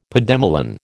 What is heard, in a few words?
"pademelon", American